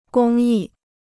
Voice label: "公益", Chinese